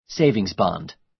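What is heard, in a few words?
"savings bond", American English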